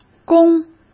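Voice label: "公", Mandarin Chinese; chinese flashcards; hsk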